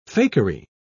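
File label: "fakery", British English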